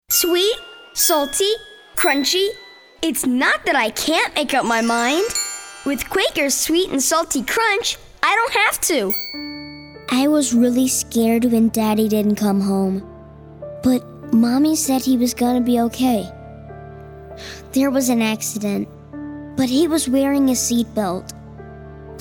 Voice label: Child Boy